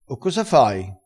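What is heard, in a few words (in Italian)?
"gioino", vocabolario dialettale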